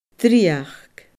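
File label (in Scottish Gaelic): "dreuchd", Scottish Gaelic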